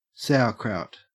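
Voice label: "sauerkraut", Australian English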